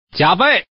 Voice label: "jiabei", man